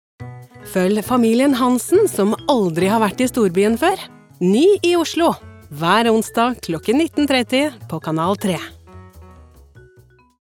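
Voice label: Norwegian promo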